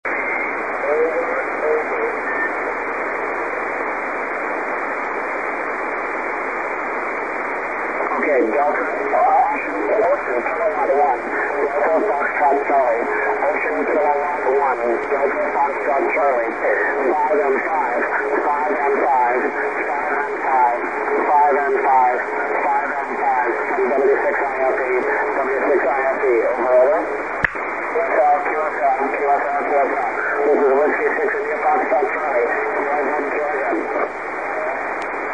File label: ssb qso, EME